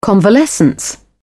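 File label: "convalescence", British English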